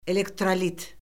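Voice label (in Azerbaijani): "elektrolit", Azerbaijani; Orfoqrafiya Lüğəti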